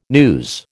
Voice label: "news", American

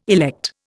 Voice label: "elect", Indian

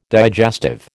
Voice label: "digestive", American

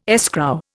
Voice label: "escrow", Indian

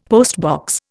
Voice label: "postbox", Indian; Dictionary

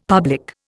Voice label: "public", Indian; Dictionary

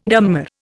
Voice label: "dammar", Indian

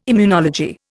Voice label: "immunology", Indian

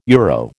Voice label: "euro", American

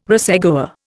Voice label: "racegoer", Indian

